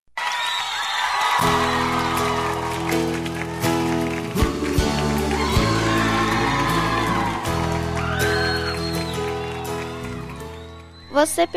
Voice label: Dia das Maes, F Infantil

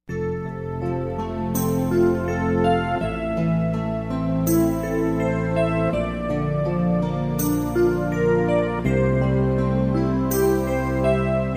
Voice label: Dia das Maes pra tias, M

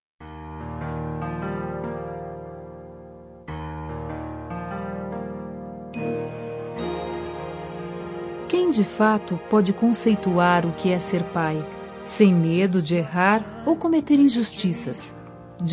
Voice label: Aniv. de Padrasto F

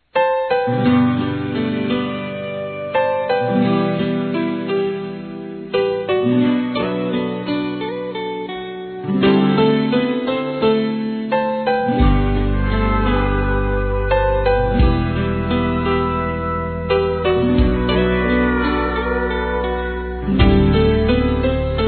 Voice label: Aniv. de Nora Distante, F

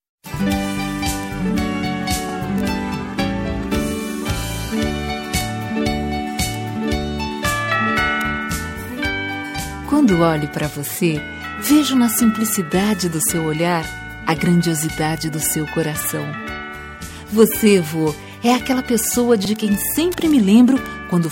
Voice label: Feliz Natal pra Avo, F

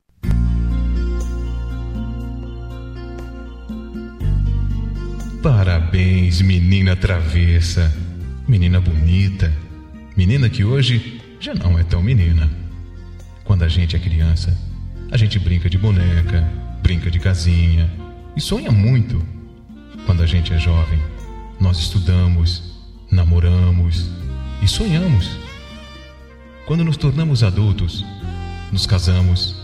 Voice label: Aniv. de 15 Anos M Amigo

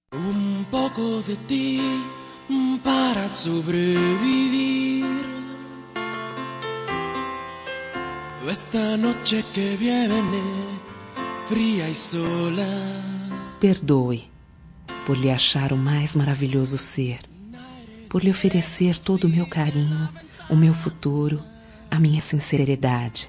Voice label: Romântica Com Desculpas por Te Amar, F

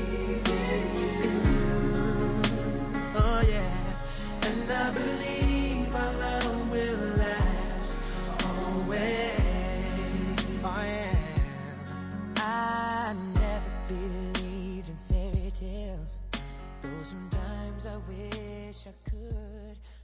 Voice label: Romântica Estou Atraído por Você

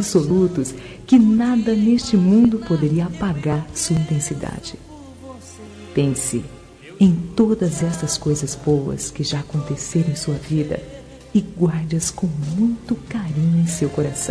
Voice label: Romântica pra Você Pensar

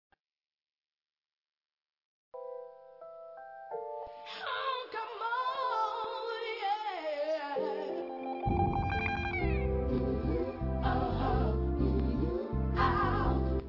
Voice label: Romântica Com Declaração, F

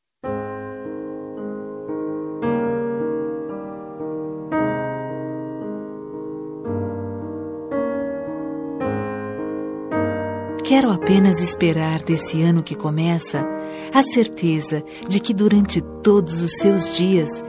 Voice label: Feliz Ano Novo Romantico, F

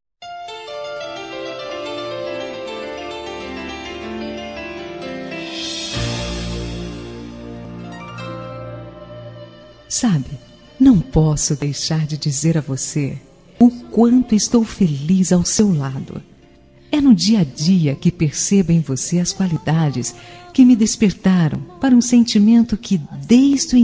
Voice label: Romântica pra Esposo

